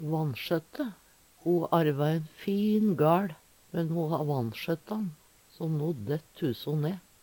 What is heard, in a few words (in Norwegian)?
vannsjotte, Numedalsmål; Ho arva ein fin gaL, men ho ha vannsjøtta`n so no dætt huso ne.